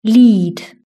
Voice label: "lead", British English